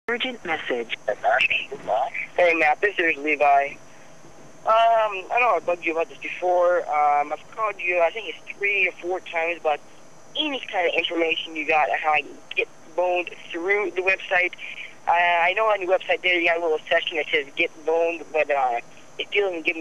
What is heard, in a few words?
Voicemails